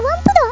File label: GIRL